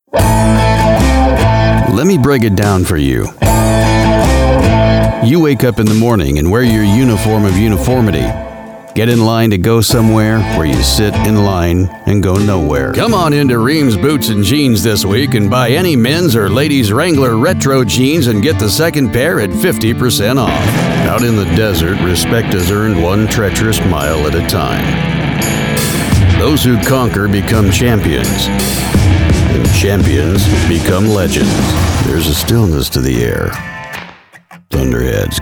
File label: Deep Gritty